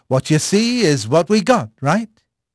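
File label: English male; Pirates Of The Caribbean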